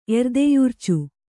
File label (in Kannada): "ಎರ್ದೆಯುರ್ಚು", Kannada